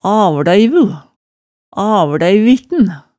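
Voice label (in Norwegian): "avlæivu, avlæivitn", Hemsedal dialect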